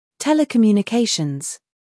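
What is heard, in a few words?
"humor", British; telecommunications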